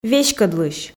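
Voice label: "веськӧдлысь", Komi, female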